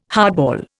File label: "hardball", Indian